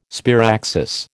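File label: "sparaxis", American English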